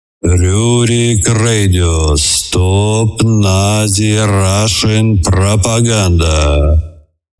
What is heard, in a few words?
Radio